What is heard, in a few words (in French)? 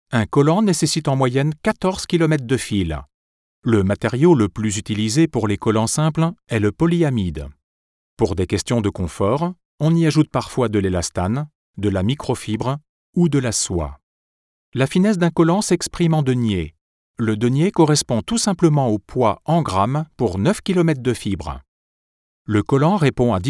voix off docu; collants